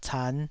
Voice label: "can5", taiwanese